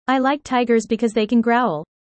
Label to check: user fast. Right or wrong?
Right